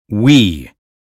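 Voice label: "we", American English; 英语音标发音（剑桥版）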